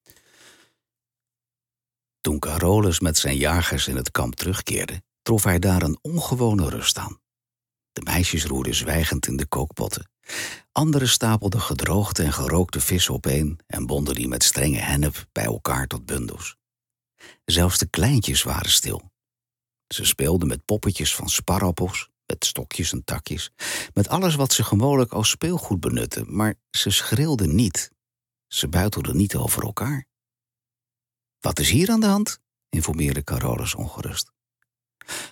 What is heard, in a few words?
Dutch audiobooks